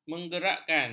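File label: "menggerakkan", Malay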